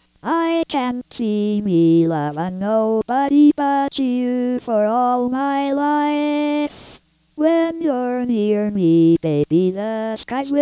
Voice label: dectalk dtc03 singing